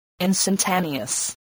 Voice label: "instantaneous", voice spelling